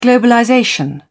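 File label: "globalization", British English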